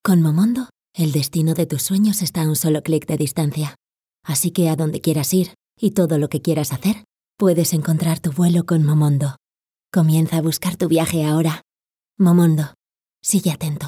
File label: Spanish, vo